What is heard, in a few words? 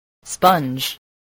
"sponge", voice